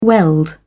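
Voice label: "weld", British English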